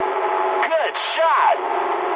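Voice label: English player killed enemy mood high; speech; War Thunder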